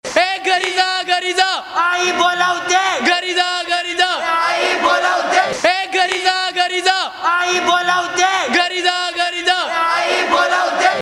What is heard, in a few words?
marathi funny, ringtone